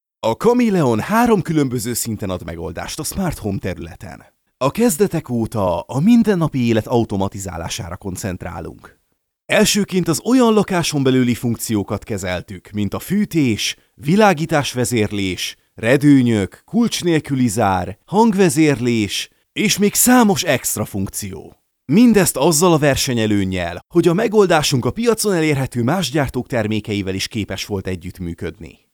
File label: Male, Hungarian